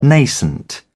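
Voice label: "nascent", British English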